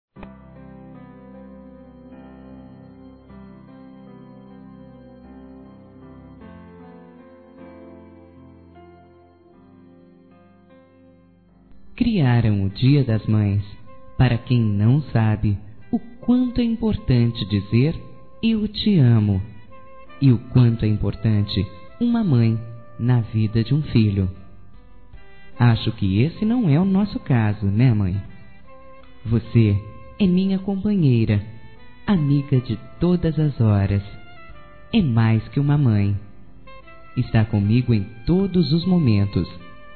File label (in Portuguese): MÃE F, Telemensagens; Telemensagens 2017